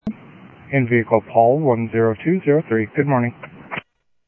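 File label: Sheriff East County, scanner audio trunk; South Scottsdale; MCSO - EAST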